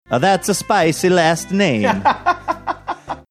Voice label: saying thats a spicy last name bad italian accent